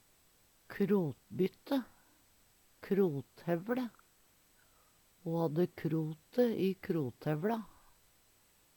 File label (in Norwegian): "krothevLe", Numedalsmål